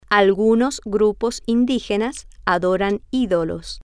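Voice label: algunos Grupos Indigenas Adoran Idolos, Spanish, female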